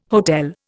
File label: "hotel", Indian